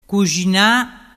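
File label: "cuxina", Vernazzese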